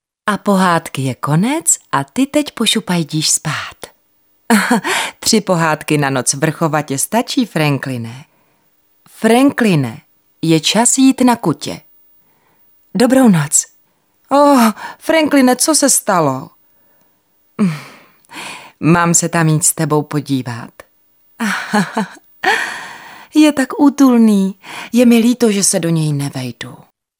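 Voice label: female Czech drama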